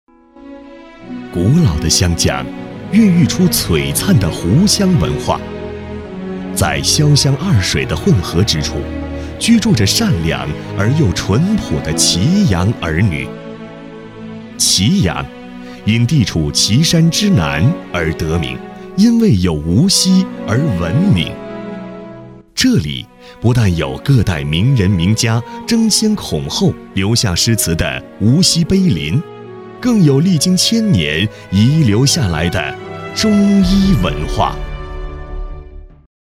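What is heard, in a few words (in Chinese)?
男【宣传】大气浑厚; 城市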